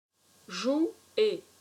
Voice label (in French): "Jouer", french